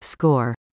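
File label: "Score", English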